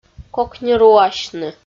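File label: "кокнируасьны" Komi, female; audio dict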